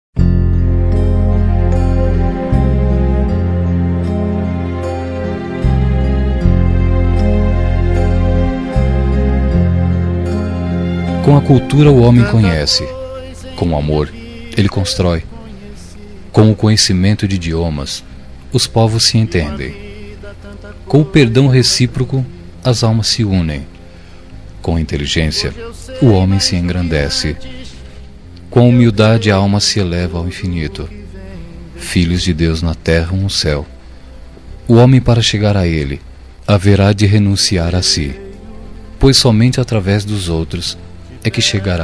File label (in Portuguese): MENSAGEM NEUTRA MASC, Telemensagens; Telemensagens 2017